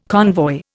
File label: "convoy", Indian